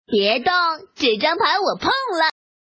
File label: woman peng